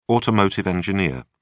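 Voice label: "automotive engineer", English, British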